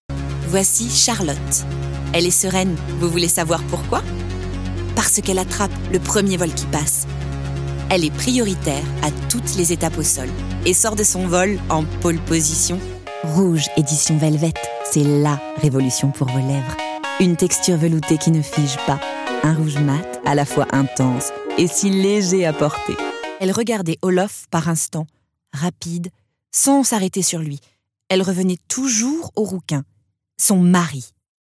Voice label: French

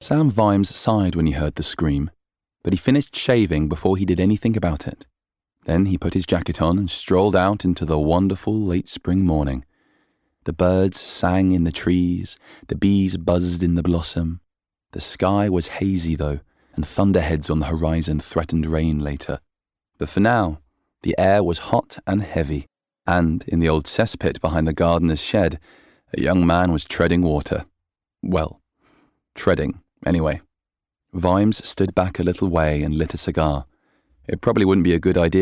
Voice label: Audio Book

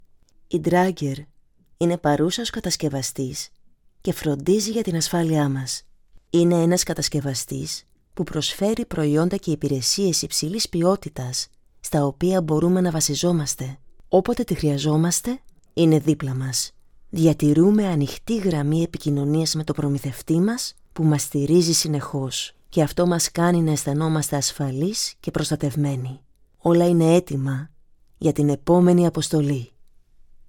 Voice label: Home studio recording

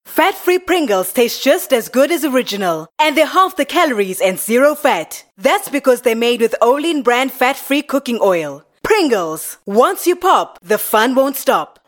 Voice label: Commercials

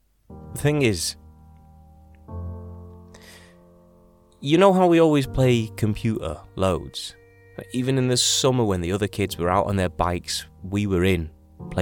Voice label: Drama

